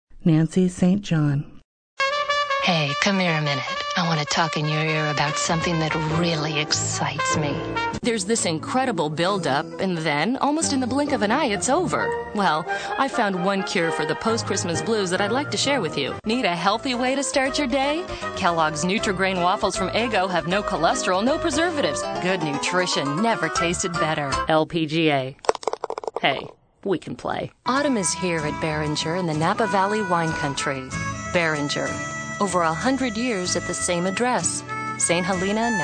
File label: female voice